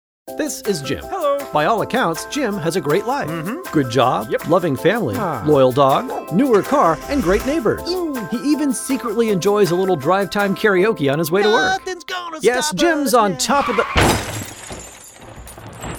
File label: Commercial